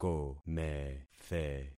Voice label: "komethe", concat syllables; Affixing experiment

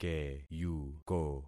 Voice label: "keyuko", concat syllables; Affixing experiment

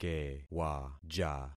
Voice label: "kewaja", concat syllables; Affixing experiment

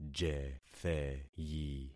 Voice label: "jetheyi", concat syllables; Affixing experiment

